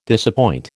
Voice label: "disappoint", American